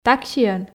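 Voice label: "таксиӧн", Komi, female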